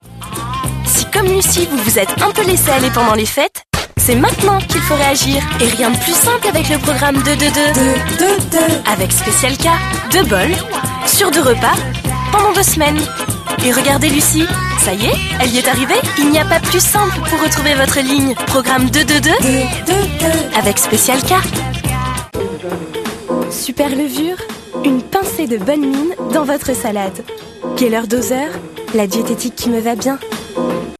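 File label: French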